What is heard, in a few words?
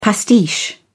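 "pastiche", British English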